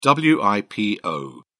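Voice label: "wipo", British English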